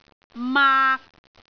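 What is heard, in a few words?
"maak3", Cantonese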